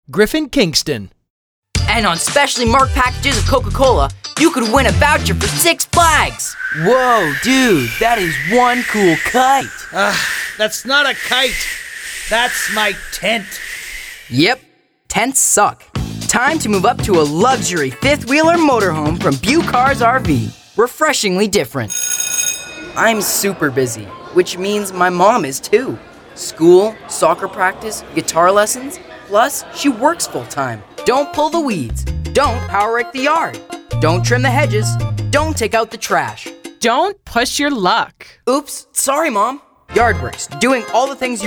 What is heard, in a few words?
kids commercial; Cool teen with a fun delivery.